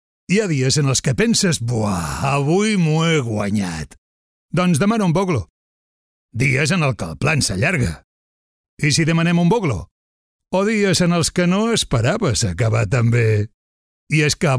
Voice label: locutor catalan voiceover